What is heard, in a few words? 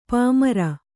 "ಪಾಮರ", kannada